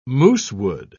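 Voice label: "moosewood", British English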